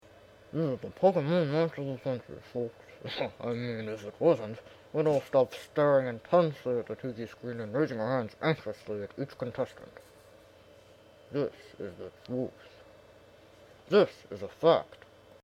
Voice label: announcer on tv